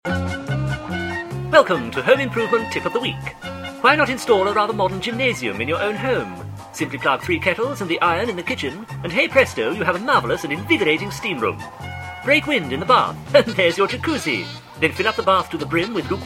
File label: Magnet Scratch, Adverts; Cookham Summer FM